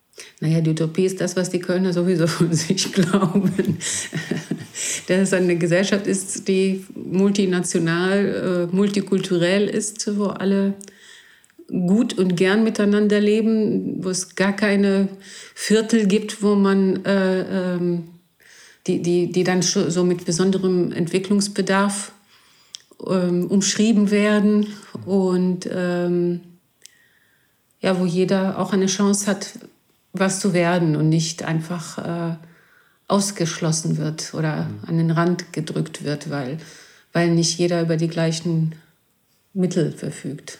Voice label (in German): griechisch